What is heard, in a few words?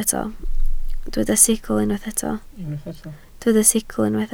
"sicl", Welsh